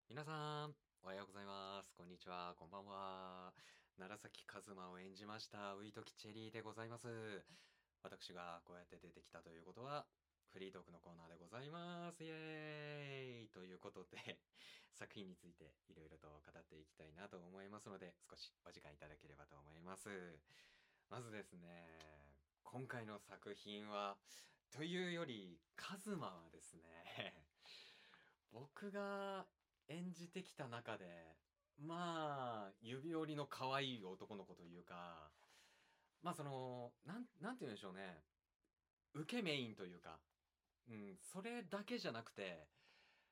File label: FreeTalk, ASMR; 天邪鬼な後輩男子は泣かされちゃう～ホントは君が大好きなんだ～